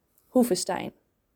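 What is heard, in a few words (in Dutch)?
"Hoevestein", Dutch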